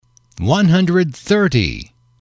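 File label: "one hundred thirty", English, male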